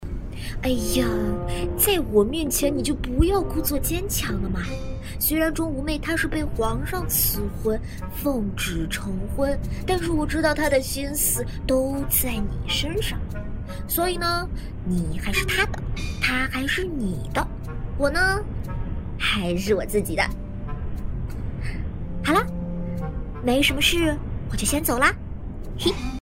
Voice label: 古装剧可爱女生角色

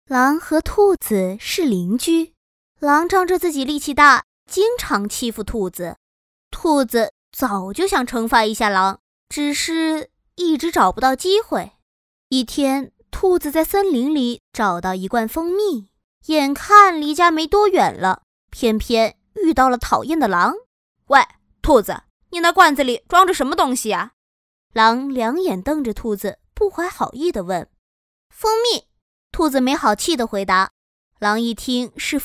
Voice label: 儿童故事 配音

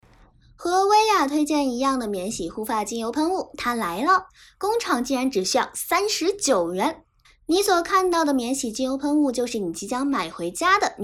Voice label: 电商广告

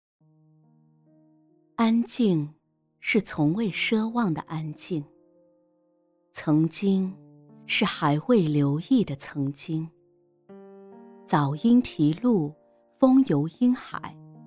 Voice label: 宣传片美文

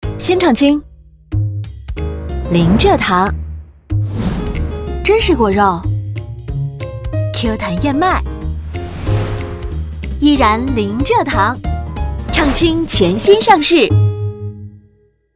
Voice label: 广告年轻; 伊利新畅轻

